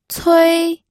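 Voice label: "cui", pinyin, first tone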